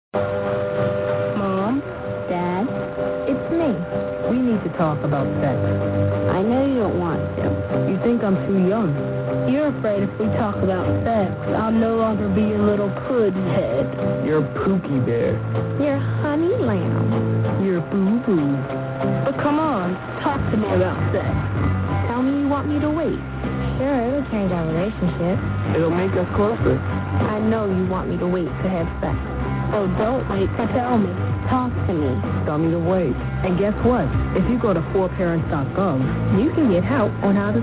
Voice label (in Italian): inglese; AFN